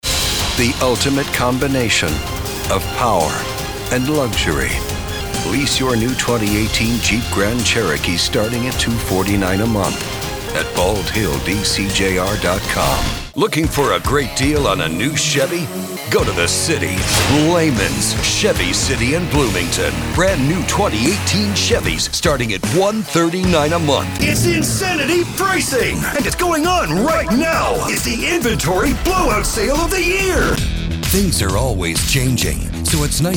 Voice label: AUTO HARD SELL